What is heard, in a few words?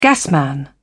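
"gasman", British English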